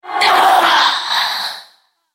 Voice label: Spider dying, en US; EliseSpider